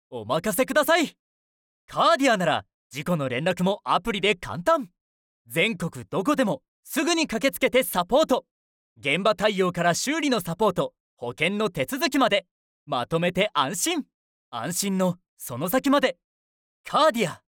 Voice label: male